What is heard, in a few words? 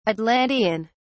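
"atlantean", English, uk British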